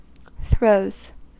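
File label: "throws", US English; pronunciations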